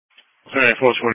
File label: EMS Dispatch